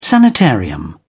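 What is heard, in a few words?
"sanitarium", British English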